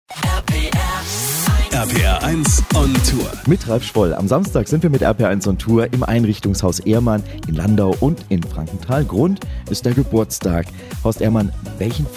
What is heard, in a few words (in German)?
Infomercial; OnTour; Ehrmann; RPR1